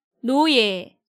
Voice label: "noye", Korean